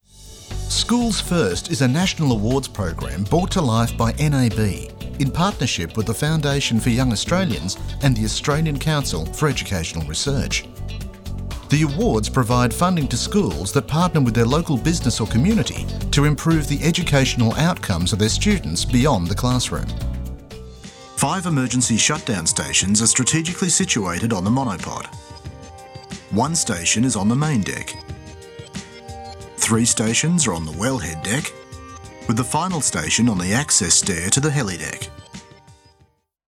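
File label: interactive voice response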